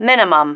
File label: "minimum", female